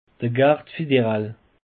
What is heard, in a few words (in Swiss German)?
"garde", alsace; peche